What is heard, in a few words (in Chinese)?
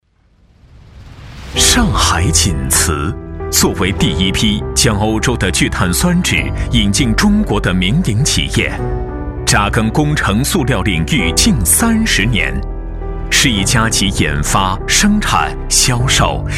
宣传大气; 上海锦磁